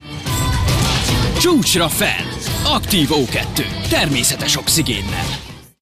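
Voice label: Hungarian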